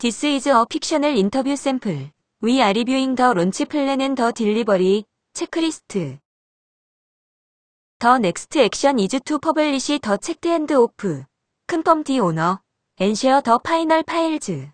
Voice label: harbor interview source